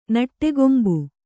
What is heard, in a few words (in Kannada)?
"ನಟ್ಟಗೊಂಬು", Kannada